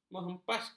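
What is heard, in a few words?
"menghempaskan", Malay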